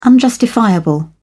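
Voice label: "unjustifiable", English, British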